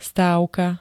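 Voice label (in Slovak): "stávka", Slovak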